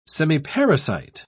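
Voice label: "semiparasite", English, British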